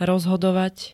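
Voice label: "rozhodovať", Slovak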